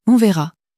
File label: "on verra", French